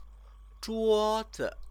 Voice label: "zhuozi", Chinese

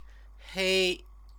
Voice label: "hei", Chinese

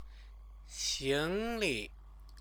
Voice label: "xingli", Chinese; 行李; luggage; noun

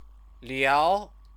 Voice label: "liao", Chinese; Chinese lessons

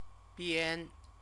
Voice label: "bian", Chinese; CTCFL; University of Oxford

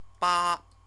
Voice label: "ba", Chinese; eight; number

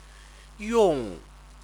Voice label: "yong", Chinese; use; verb

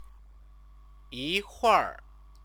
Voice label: "yihiur", Chinese

